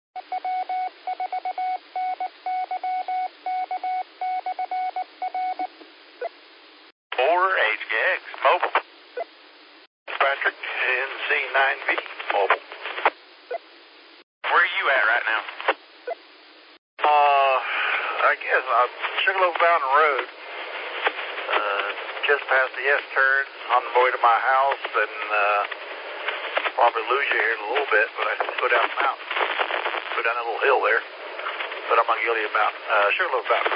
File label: qso rec simplex logic